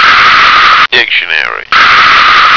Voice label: "dictionary", American

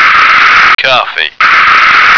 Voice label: "coffee", American

